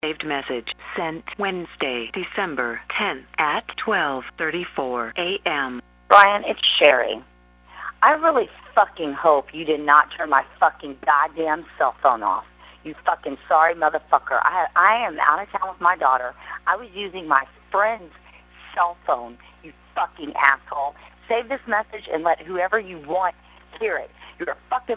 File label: voicemail